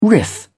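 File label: "riff", British English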